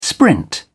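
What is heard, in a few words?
"sprint", English, British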